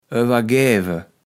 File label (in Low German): "owergäwe", kaevels platt